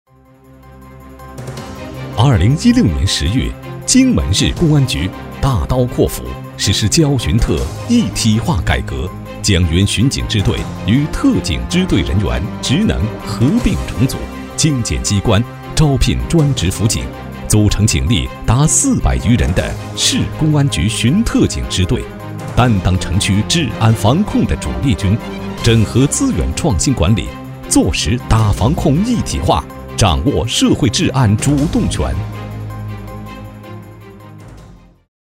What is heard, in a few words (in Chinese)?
男 专题; 交巡警改革